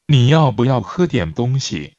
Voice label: Mandarin Conversation

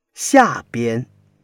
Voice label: "xia4 bian", Chinese

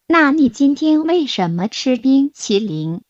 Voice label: Mandarin Conversation

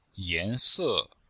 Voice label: "yan2se4", chinois; Cours de Chinois

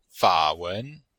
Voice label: "fa3wen2", chinois; Cours-de-Chinois

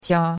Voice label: "qia1", chinois; Cours de Chinois

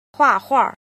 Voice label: "jin", Chinese

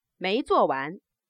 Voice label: interrogative, Chinese

